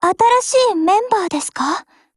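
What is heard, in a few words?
BUILD OVER Japanese, Voice; GF Alarm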